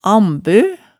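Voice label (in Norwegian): "ambø", Hemsedal dialect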